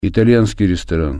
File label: "italian restaurant", Russian